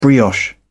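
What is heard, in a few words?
"brioche", British English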